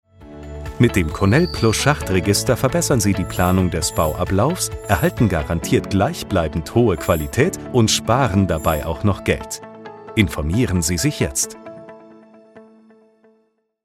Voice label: produkt freundlich informativ

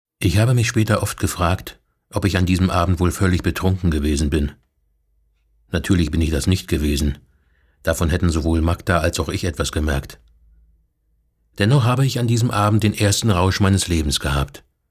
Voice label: hoerbuch; Muttersprache Deutsch; Sprecher in Berlin, Hamburg, Leipzig

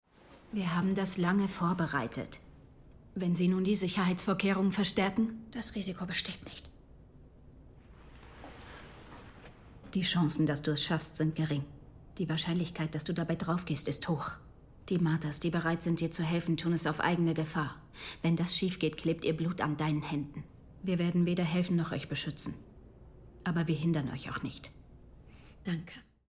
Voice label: synchron

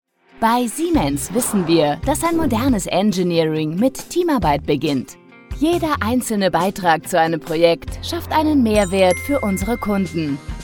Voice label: Imagefilm; siemens

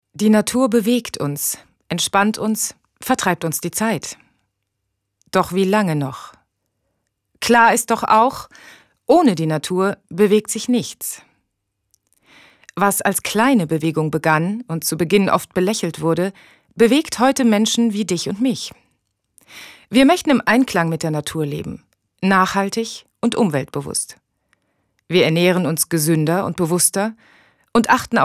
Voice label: doku; kosm

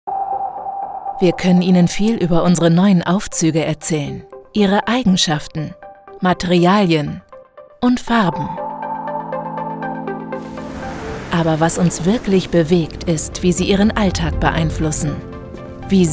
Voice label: Imagefilm; kone

